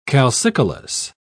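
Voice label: "calcicolous", English, British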